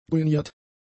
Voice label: "gummiert", German; adjectives